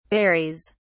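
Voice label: "varies", British English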